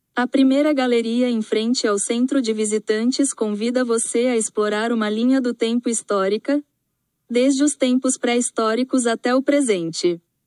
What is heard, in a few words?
portuguese, audio tour